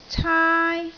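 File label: "caai1", Cantonese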